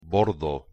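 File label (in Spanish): "bordo", Spanish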